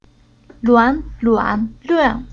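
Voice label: "Luan", Chinese syllable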